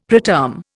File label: "preterm", Indian; Dictionary